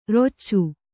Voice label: "ರಚ್ಚೆ", Kannada

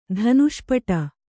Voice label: "ಧನುಷ್ಪಟ", Kannada

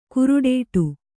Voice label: "ಕಾರೊಡ್ಡು", kannada

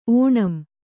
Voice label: "ಓಣಂ", Kannada; ōṇam; noun

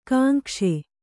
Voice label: "ಗುಂಗಿಸು", Kannada

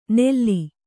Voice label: "ನೆಲ್ಲಿ", Kannada

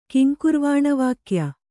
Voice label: "ಕಿಂಕುರ್ವಾಣವಾಕ್ಯ", Kannada

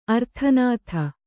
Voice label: "ಆರ್ತನಾದ", Kannada

